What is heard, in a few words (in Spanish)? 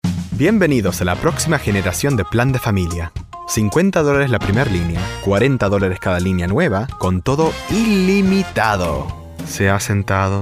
Spanish